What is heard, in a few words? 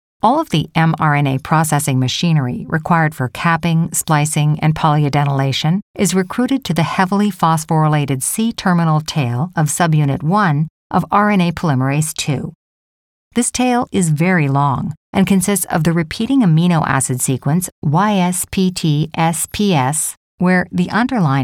Biology approachable peer